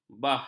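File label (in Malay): "bah", Malay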